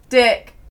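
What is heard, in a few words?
"dick", British English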